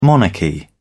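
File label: "monarchy", British English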